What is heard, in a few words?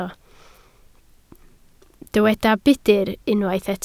"budr", Welsh